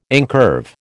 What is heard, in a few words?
"incurve", American